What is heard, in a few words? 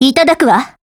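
SKILL Japanese; Type64-AR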